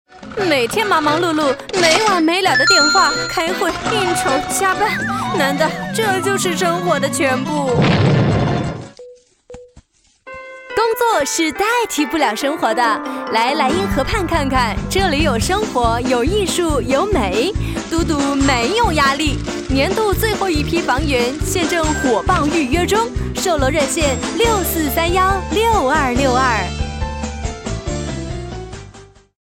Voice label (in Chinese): 女 售楼广告（活力）